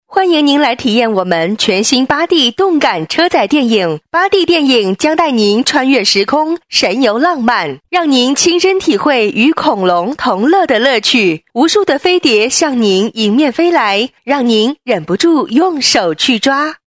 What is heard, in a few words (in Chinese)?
促销 8D电影 女 激情